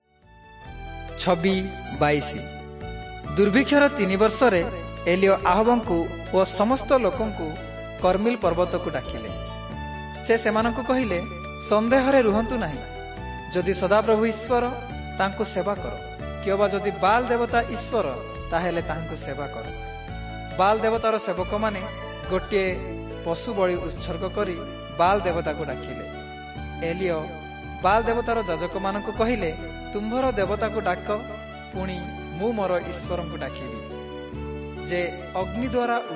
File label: southern oriya; servants of god